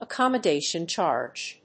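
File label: "accommodation charge", English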